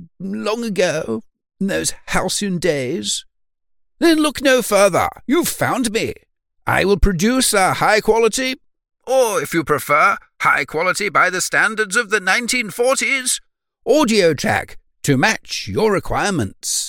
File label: Vintage British Voiceover Intro